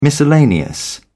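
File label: "miscellaneous", British English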